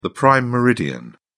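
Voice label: "prime meridian", British English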